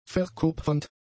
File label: "verkupfernd", German; adjectives